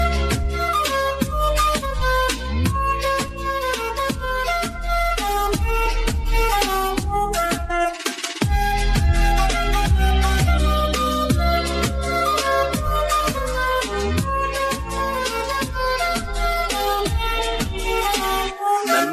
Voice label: searching for female voice in english ringtone